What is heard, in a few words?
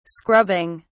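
"scrubbing", British English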